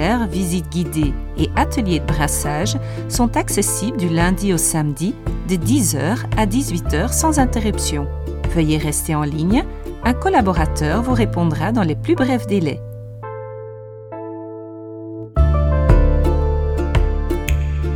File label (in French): Brasserie FR avec musique; voice over voix off